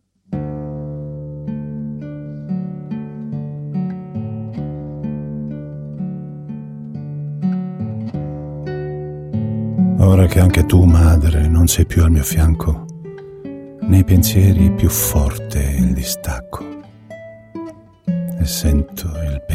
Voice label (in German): Italien M